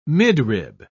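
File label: "midrib", British English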